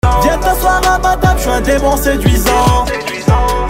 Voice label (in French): démon séduisant; gmt